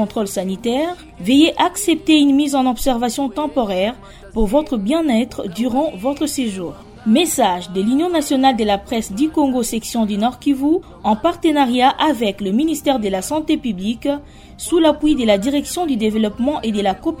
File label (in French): Spot Voyage Ebola